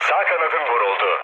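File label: Turkish right wing, mood low, speech; War Thunder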